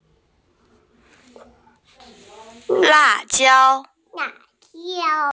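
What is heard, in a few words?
"là jiāo", Mandarin Chinese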